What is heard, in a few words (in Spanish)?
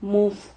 "mug", Silabas